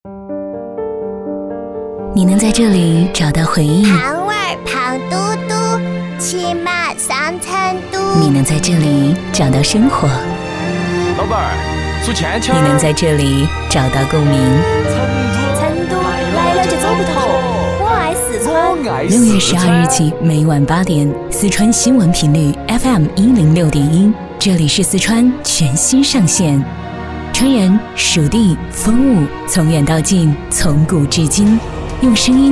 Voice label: 广告 片头片花 新闻片花 优雅; 四川新闻片花

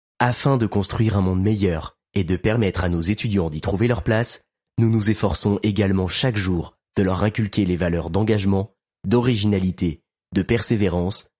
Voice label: 法语男 外语 成熟; 小语种

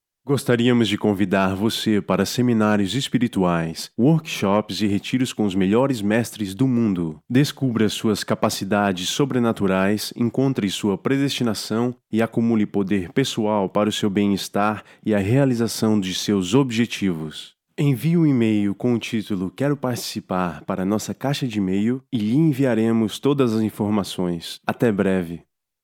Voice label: 葡萄牙语男 外语; 小语种

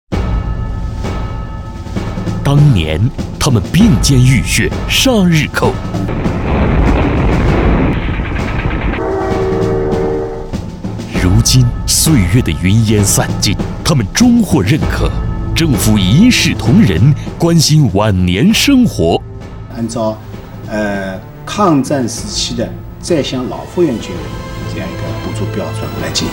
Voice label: 广告 片头片花 稳重, 男国; 寻访国民抗战老兵

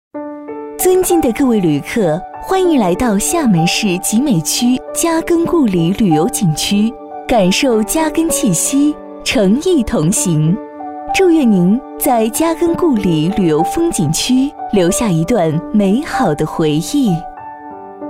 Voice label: 宣传片 旅游 景区介绍 甜美; 景区介绍龙舟池

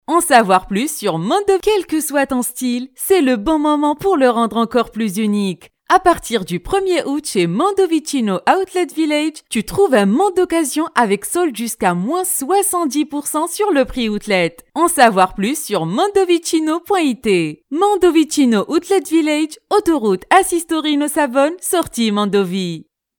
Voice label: 法语女, 外语, 小语种

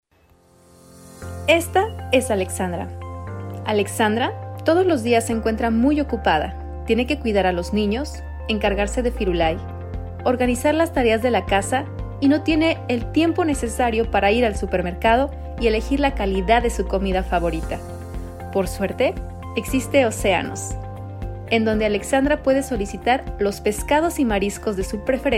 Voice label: 西班牙语女 外语 小语种 时尚

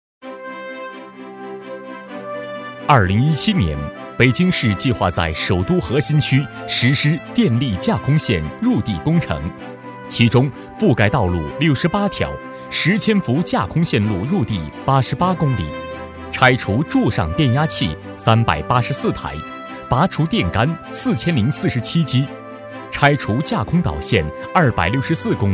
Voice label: 专题 汇报 电网工程 稳重

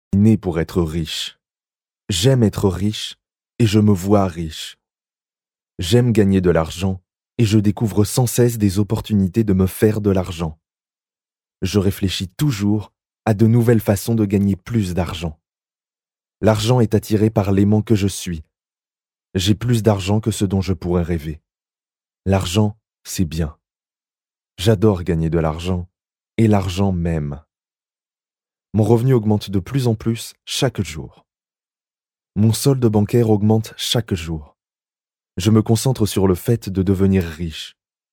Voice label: 法语男, 外语, 小语种

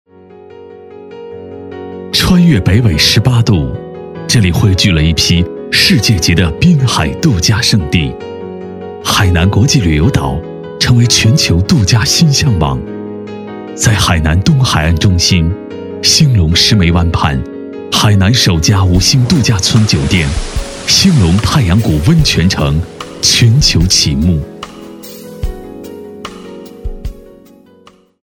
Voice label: 广告 品牌形象 度假酒店 磁性, 男; 北纬18度度假酒店

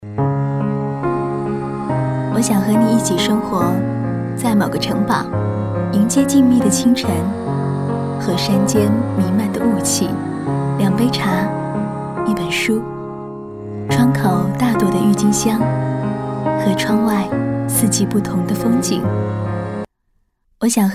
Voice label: 微电影, 女

